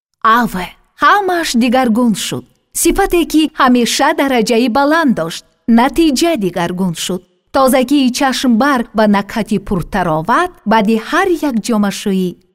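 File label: Tajikistan female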